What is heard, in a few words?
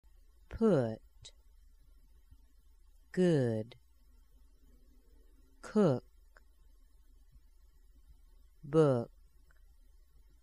u words slow, American accent